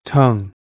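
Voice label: "tongue", English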